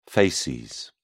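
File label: "facies", British English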